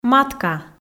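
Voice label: "матка", Komi, female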